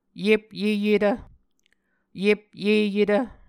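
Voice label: "yepyeyetv", Muscogee word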